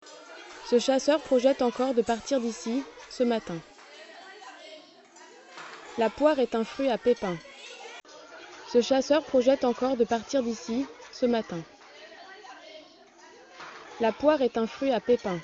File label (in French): cantine voix HD